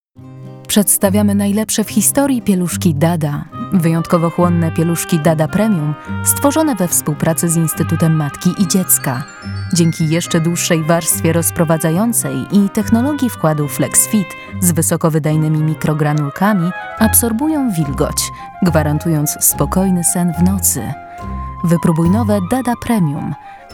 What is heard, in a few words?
Female VO Commercial